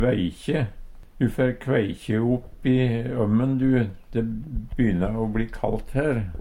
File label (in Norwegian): kveikje, Numedalsmål